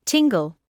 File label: "tingle", medical English